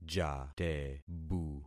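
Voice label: "jatebu", concatenated syllables; Affixing experiment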